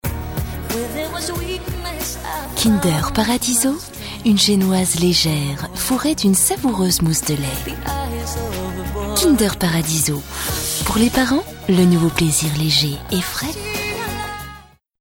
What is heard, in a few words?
Pub TV; Kinder